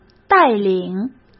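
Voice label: "带领", Mandarin Chinese; hsk; chinese flashcards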